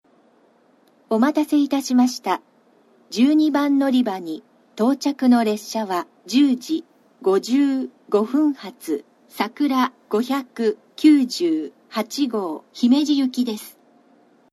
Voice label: のりば接近放送, 新幹線ホーム; 12番のりば接近放送（さくら598号 姫路行き）; kumamoto

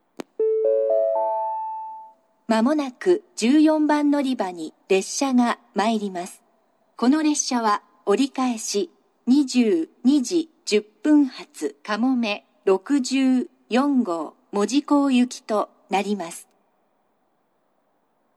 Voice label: 長崎 西九州新幹線ホーム 自動放送, 14番のりば接近予告放送, 折り返し放送; かもめ64号 門司港行き

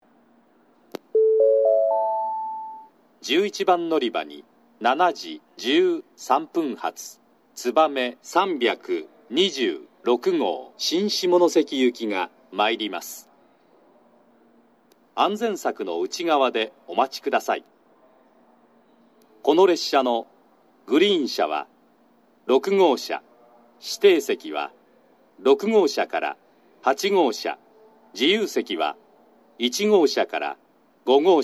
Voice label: のりば予告放送, 新幹線ホーム; 11番のりば予告放送（つばめ326号 新下関行き）; kumamoto

